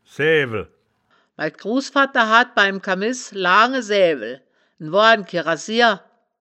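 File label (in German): "saeaewel", wittlicher woerterbuch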